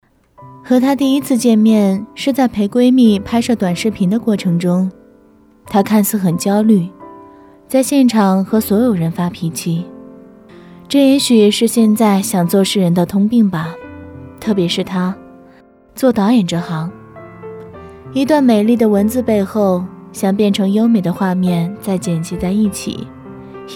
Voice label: 独白 文艺; 抖音

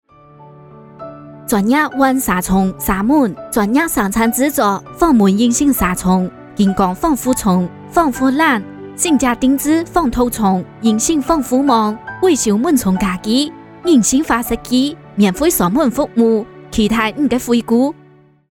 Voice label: 客家话温馨广告

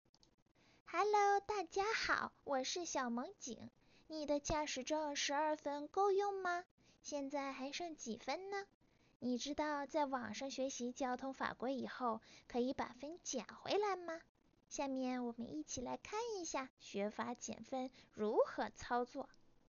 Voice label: 小盆友试音

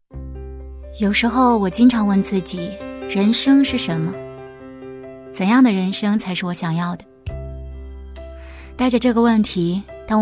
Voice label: 独白; 莒南六中我和我的党支部

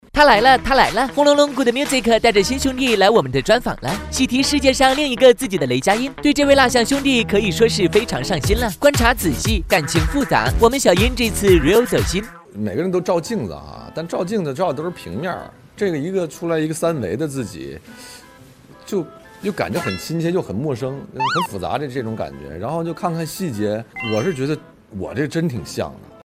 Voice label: 综艺; 磅来了腾讯在录节目

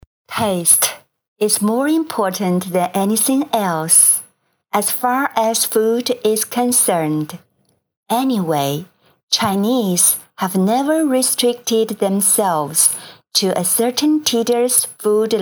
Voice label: 英语朗读

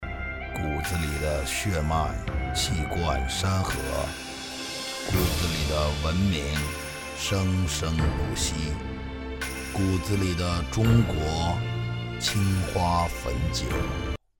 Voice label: 酒广告; 汾酒

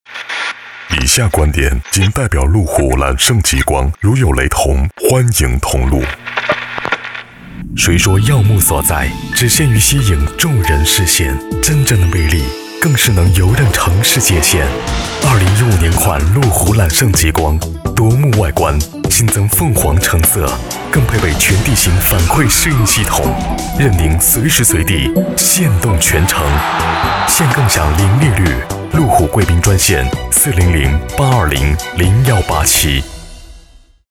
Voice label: 成熟质感男声; 路虎揽胜极光

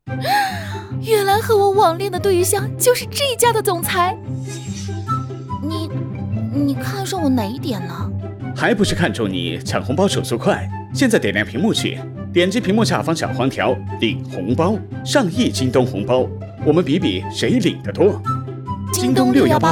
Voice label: 贴片广告; 京东

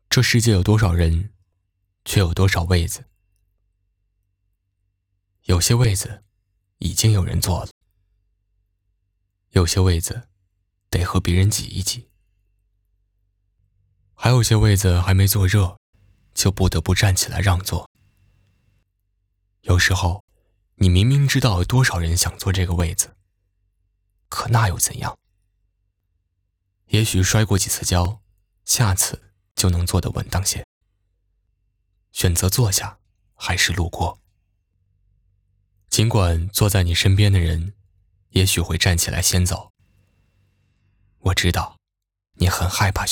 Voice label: 配音

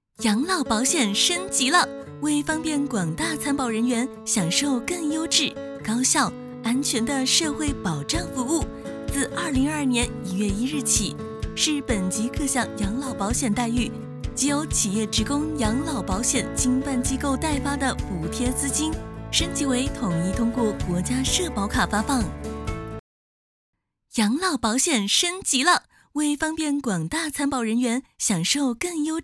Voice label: 动画风格养老

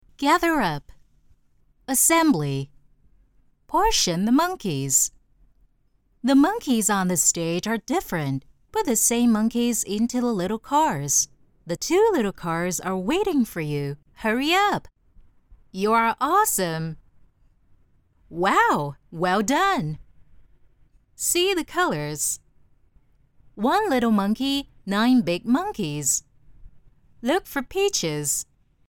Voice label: 英文产品儿童游戏产品指示音

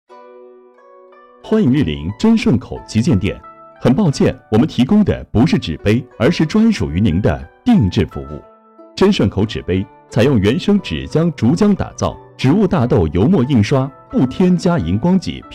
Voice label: 门店喇叭; 珍顺口纸杯

